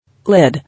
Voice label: "Lid", English